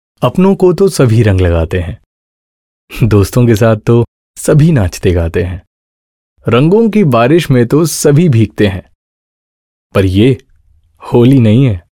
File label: Holi Hindi, male voice